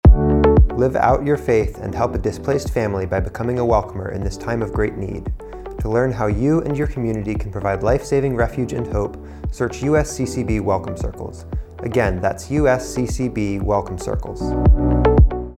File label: Welcome Circles Male